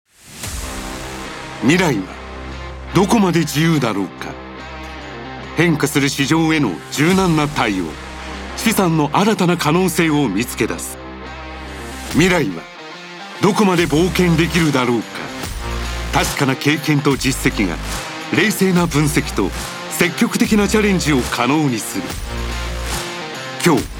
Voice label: japanese narration